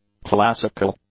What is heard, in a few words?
"classical", English; adjectives